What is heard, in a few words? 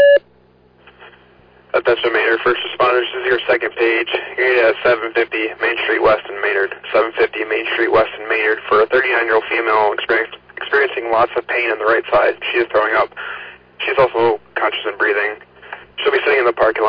Fire, dispatch; Maynard